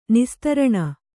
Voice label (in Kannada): "ನಿಸ್ತ್ರಾಣಿ", kannada